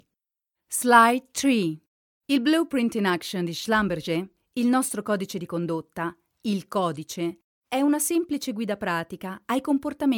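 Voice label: E-Learning, Italian; Shlumberger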